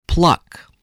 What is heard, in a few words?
"pluck", spelling